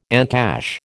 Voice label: "encash", American